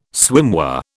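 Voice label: "swimwear", British English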